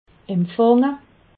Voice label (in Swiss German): "empfange", alsace